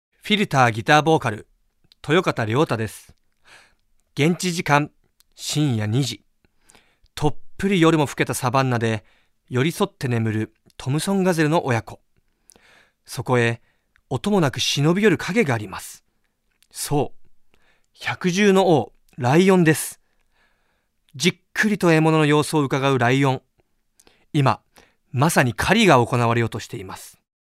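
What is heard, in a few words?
Narration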